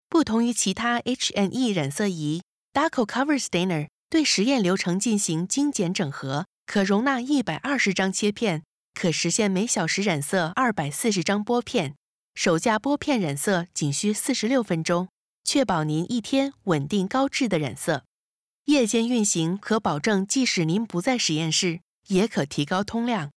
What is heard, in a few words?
chinese mandarin elearning; CHINESE (MANDARIN) » Voice age & print: 0-99. Calm, firm, strong, elegant, innocent, evil, bouncy, dramatic.